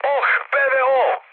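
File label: Serbian damaged aa mood med, speech; War Thunder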